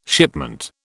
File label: "shipment", British